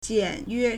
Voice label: "jian3 yue1", Chinese